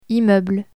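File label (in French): "immeuble" French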